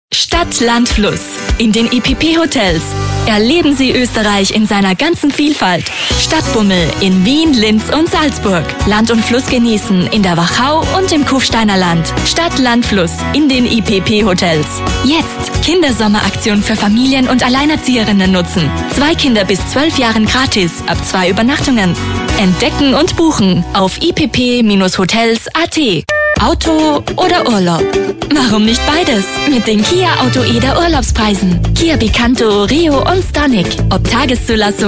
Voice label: german voice over female commercial

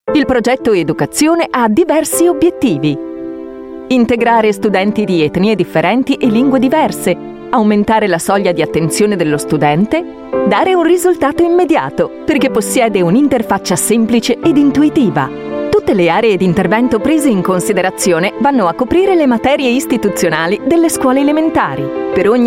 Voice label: italian voice over female commercial

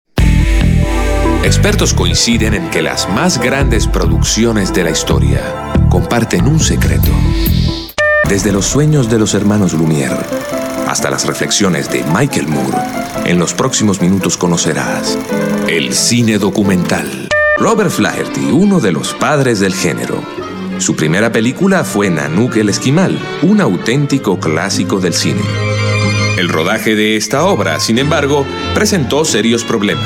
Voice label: italian voice over male narrator

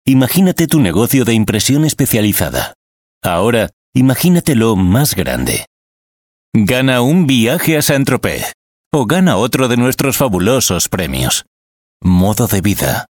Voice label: spanish voice over male narrator

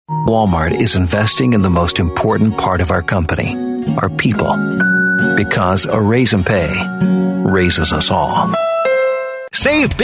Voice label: english american voice over male

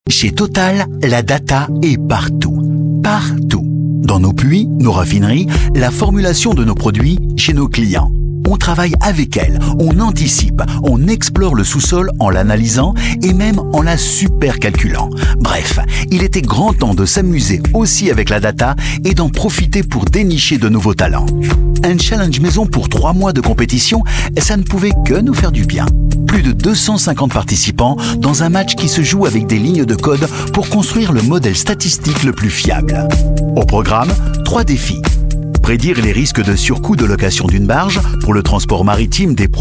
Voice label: french voice over male narrator

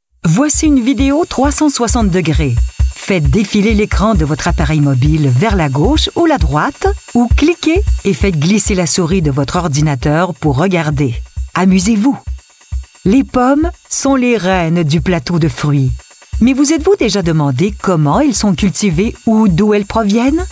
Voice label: french voice over female documentary

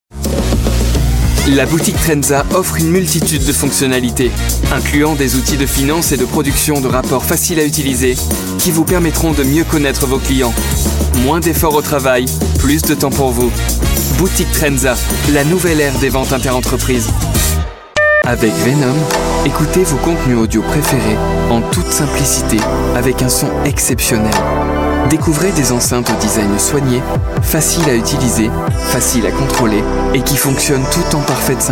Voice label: french voice over male commercial

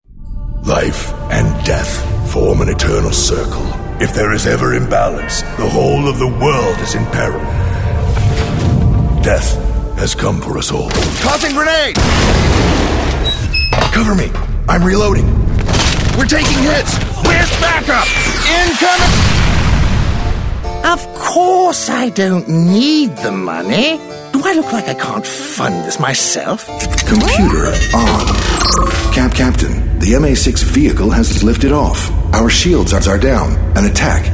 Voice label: english american voice over male animation

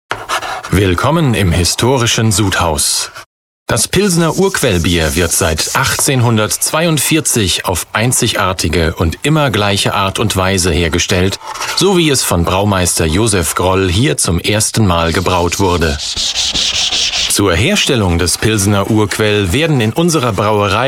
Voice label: german voice over male elearning

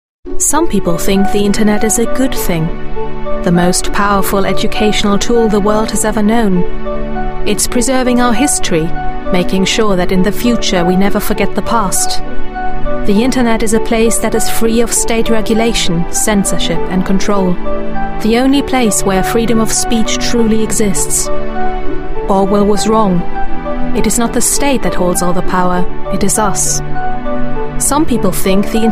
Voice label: english british voice over female narrator

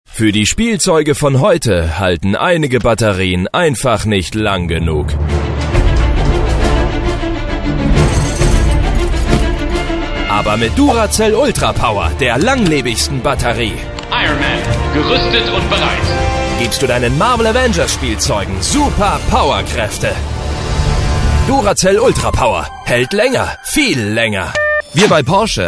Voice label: german voice over male commercial

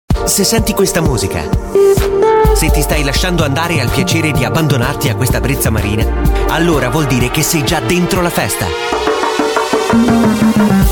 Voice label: italian voice over male commercial

